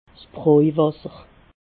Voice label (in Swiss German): "brojwasser", alsace